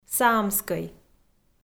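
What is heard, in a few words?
"саамскӧй", Komi, female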